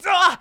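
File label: "dowa", Japanese, boy; shonen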